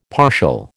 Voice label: "partial", American

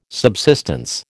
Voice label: "subsistence", American

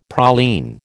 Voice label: "praline", American

